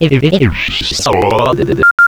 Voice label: robot vox; planet3c